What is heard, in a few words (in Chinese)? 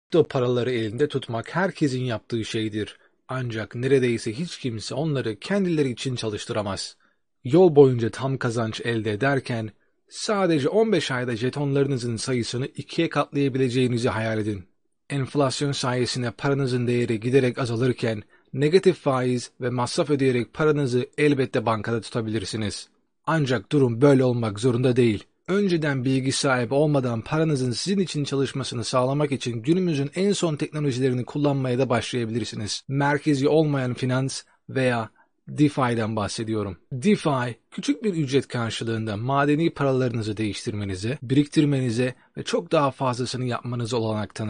土耳其语男 外语 小语种 样音